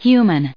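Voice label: "human", US English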